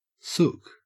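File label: "sook", Australian English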